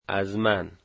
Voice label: my, dari